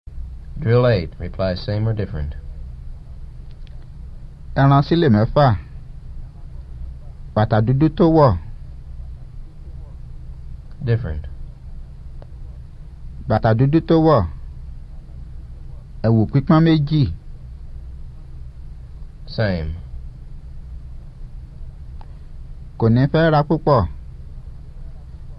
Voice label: Yoruba; FSI; Basic